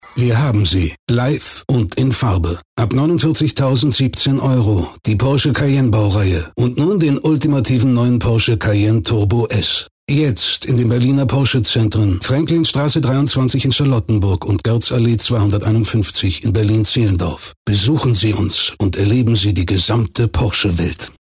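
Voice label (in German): Werbung Cool; Fremdtake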